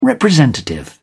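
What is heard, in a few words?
"representative", British English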